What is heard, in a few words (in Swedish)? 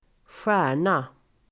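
"stjärna", Swedish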